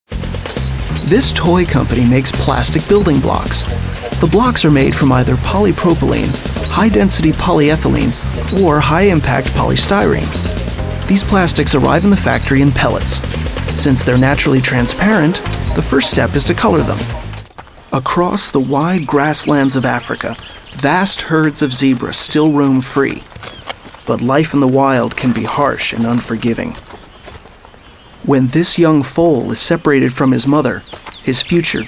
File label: Narration